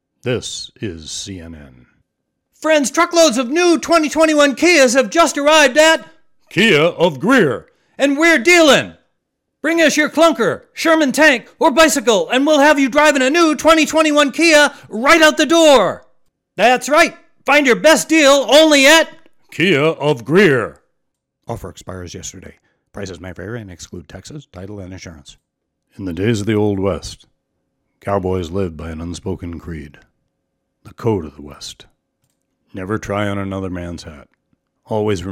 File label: English Language Commercials and Taglines